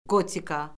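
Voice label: "qotika", Azerbaijani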